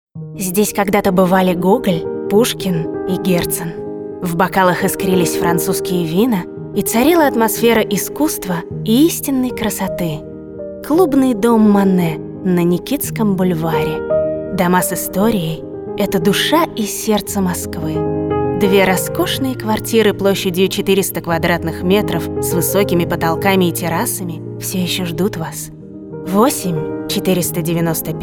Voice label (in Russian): РАДИО РЕКЛАМА